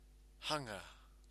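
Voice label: "hanga", Maori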